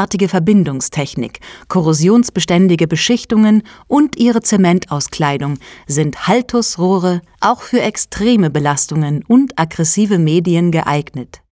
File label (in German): Imagefilm Wasser